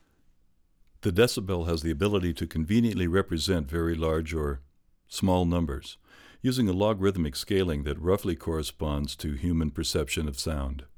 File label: Narration